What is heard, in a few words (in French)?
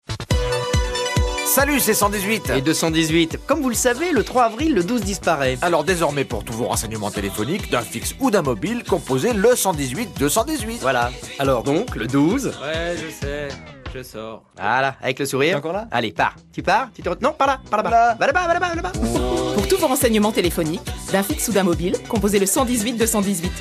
radio pub spot